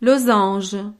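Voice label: "losange", French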